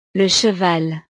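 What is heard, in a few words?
"le cheval", French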